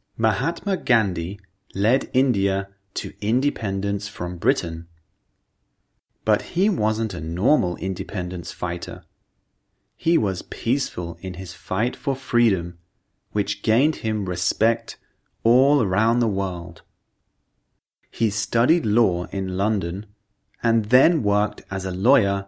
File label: Vowel Sounds, British English; Udemy - Perfect English Pronunciation British English